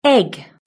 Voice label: "egg", british english